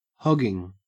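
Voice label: "hogging", Australian English; pronunciations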